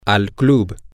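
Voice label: to at the club, Spanish, male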